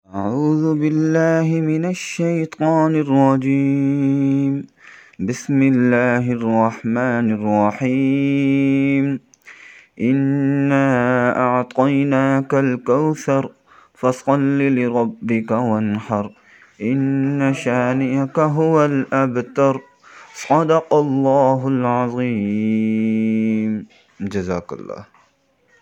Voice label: Recitation